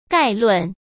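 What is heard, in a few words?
"概论", Mandarin Chinese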